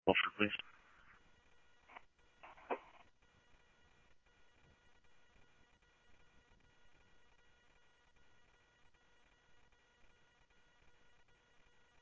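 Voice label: police radio dispatch, ScottsdalePolice; SCTSDLE PD - PD1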